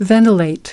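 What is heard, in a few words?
"Ventilate", American English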